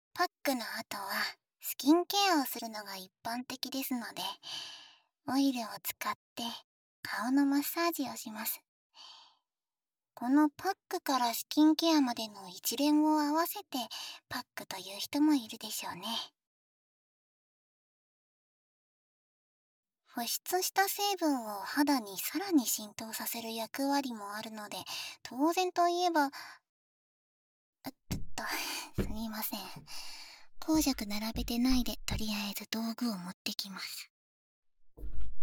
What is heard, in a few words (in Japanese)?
オイルマッサージとマッサージブラシ, ASMR; 癒し上手なノノさん2